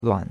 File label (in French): "luan3", chinois; Cours-de-Chinois